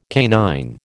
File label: "canine", American